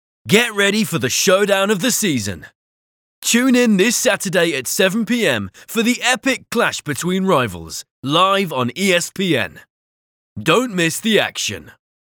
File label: Sports Announcer